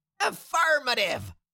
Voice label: Cartoon little monster voice affirmative